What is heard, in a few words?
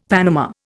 "panama", Indian; Dictionary